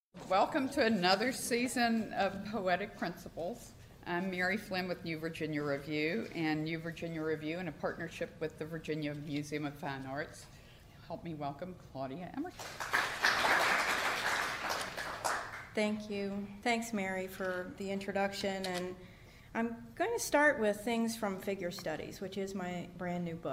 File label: reading